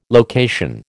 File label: "location", American